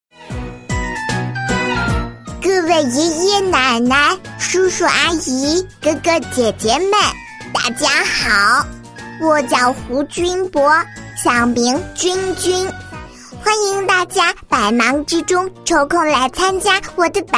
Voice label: 女19 男童 可爱 憨萌, 国语女声配音; 百岁生日宴

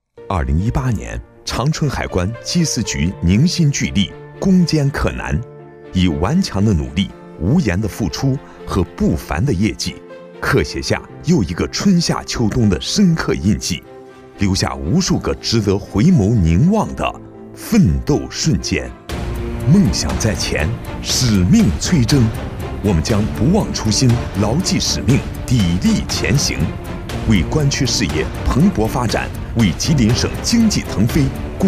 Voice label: 男, 党政专题; 长春海关

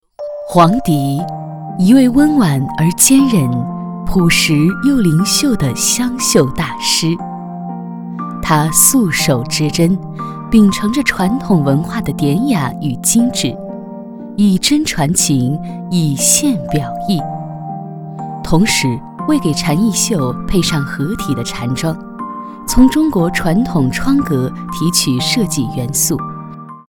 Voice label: 女, 专题, 人物专题, 湘绣大师

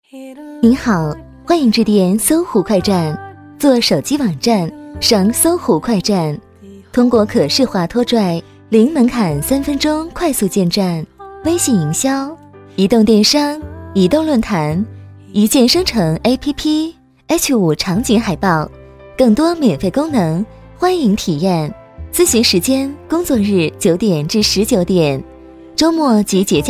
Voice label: 女 彩铃, 国语女声配音; 搜狐快站

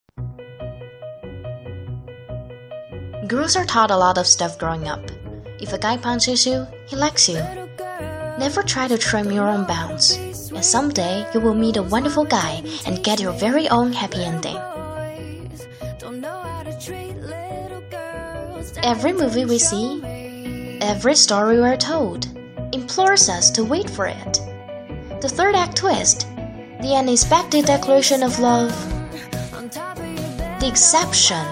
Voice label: 英文; never-ever-give-up

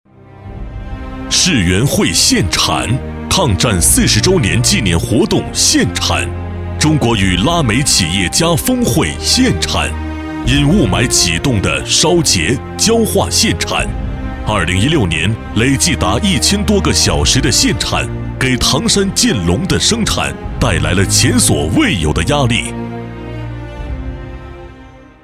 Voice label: 男, 工作汇报; 唐山建龙减产限产工作

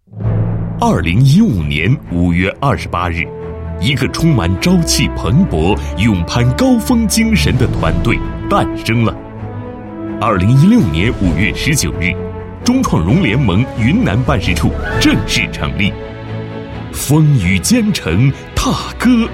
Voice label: 男 宣传广告; 中创融联盟团队介绍

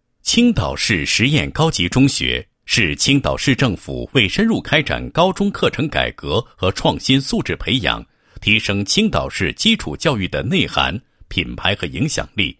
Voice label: 男, 学校介绍; 青岛市实验高级中学

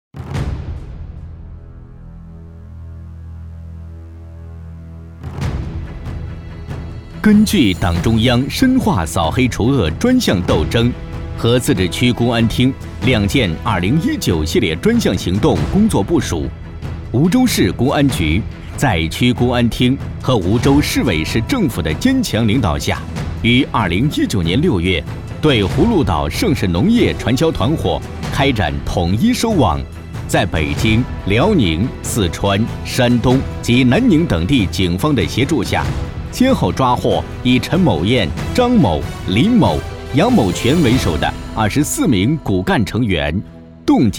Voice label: 专题, 公安, 震撼

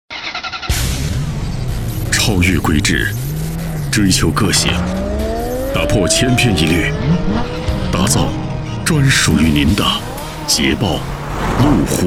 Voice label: 广告 动感; 路虎

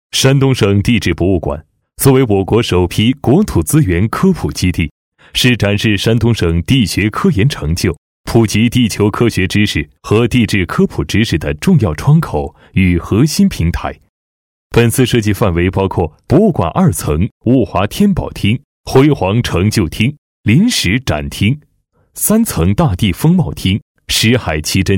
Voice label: 男 项目汇报 平实客观, 国语男声配音

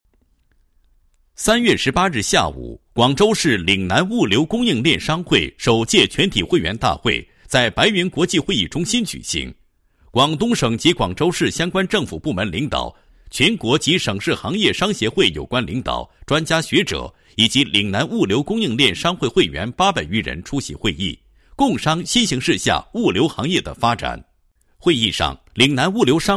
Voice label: 男 新闻 物流供应链商会; 岭南物流供应链商会

